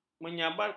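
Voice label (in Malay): "menyabarkan", Malay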